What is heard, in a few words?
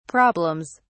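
"problems", British English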